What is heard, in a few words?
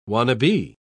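"wannabee", English, British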